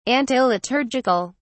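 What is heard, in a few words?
"antiliturgical", British English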